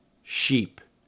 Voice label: "sheep", Minimal Pairs; Rhymes eep